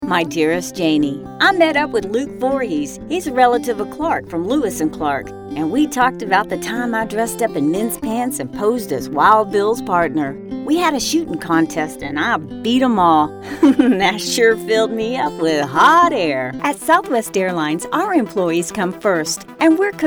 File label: Narration